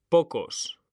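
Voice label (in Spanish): "POCOS", Spanish; mekanta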